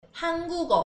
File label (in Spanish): idioma coreano